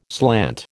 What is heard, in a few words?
"slant", American